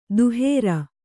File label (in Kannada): "ದಹರ", Kannada